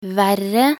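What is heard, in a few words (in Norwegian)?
"verre", Norwegian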